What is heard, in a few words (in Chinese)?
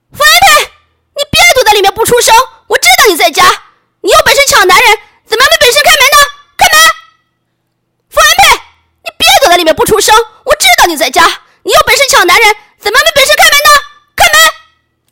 模仿雪姨干音